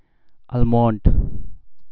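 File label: "almond", Nepalese, male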